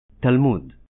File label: "Talmud", Hebrew